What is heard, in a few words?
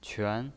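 "quan" second tone, pinyin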